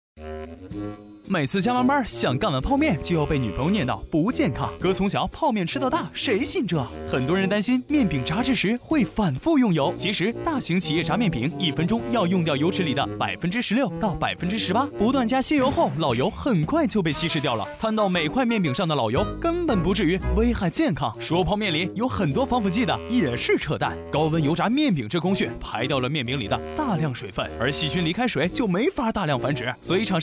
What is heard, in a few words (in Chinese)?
轻松搞怪; 飞碟说